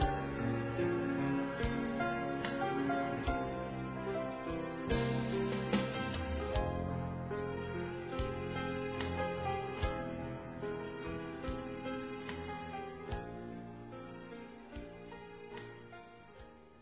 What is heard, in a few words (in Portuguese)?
Pai, me orgulho de você F, Telemensagens Aniversário; Aniversários; Aniv Familiar